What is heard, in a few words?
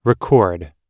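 "record", American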